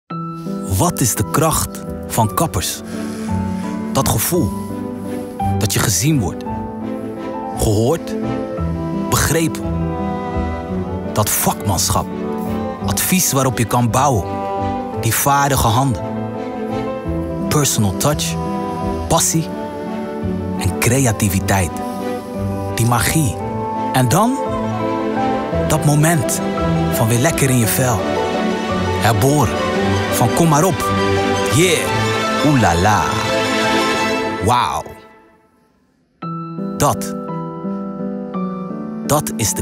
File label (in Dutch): Voice Over; De Kracht van Kappers; Anko